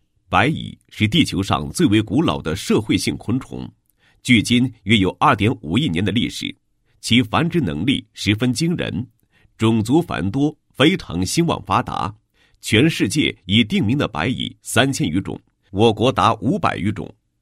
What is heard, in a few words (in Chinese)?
男27-解说词; 白蚁的危害与防治